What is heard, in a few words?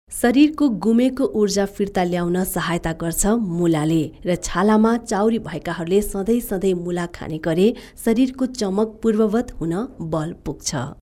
Nepalese Female